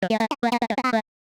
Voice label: scrappy jolly, medium clear scrappy, voice archetypes; voxel audio preview